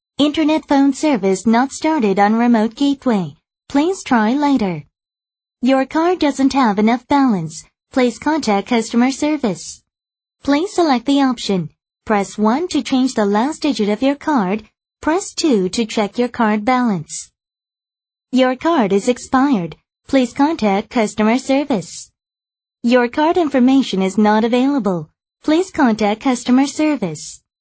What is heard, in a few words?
American English, F